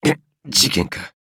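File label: level up, Japanese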